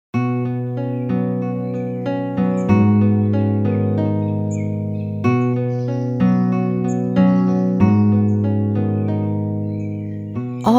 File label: Lullaby Recitation